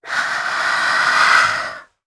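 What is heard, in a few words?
Casting, Japanese; Yuria; kingsraid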